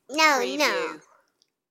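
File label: Toddler No No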